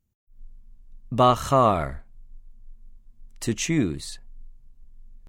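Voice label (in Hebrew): "בָּחַר", Hebrew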